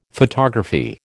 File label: "photography", American